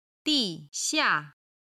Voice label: "di4xia4", chinese